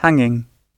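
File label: "hanging", British English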